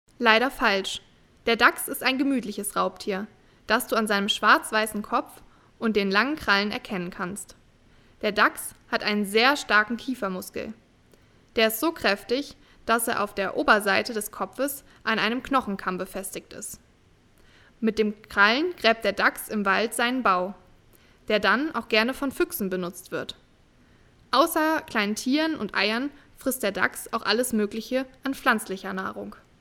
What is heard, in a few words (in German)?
Erklaertext Dachs; wildtierkamera; kinder